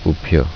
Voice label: stamp, korean